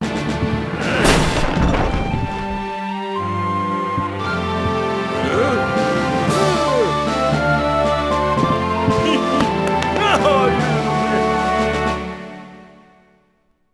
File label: lost relative m; Sid Meiers Pirates; XBOX